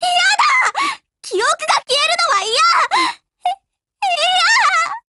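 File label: DEAD Japanese, Voice; GF Alarm; Destroyer buhuo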